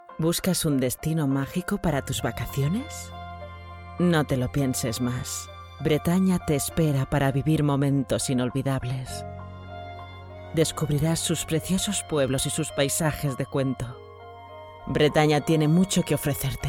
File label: Spanish